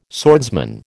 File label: "swordsman", American